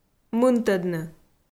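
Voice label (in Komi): "мынтӧдны", Komi, female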